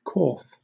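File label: "corf", British English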